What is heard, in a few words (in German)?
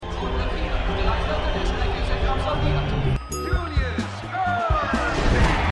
Rennbahnsprecher Stadionsprecher; Stimmproben; Winning Time